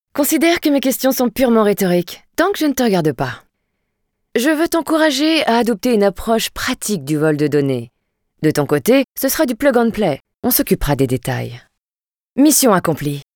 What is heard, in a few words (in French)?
JEU VIDEO PERSO VOIX POSEE TYPE TUTORIAL IN GAME